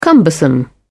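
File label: "cumbersome", British English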